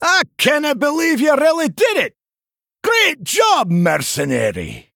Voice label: Male Dwarf; Brann Bronzebeard